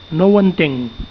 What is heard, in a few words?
not understand, Catalan